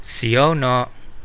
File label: sorry, kadazan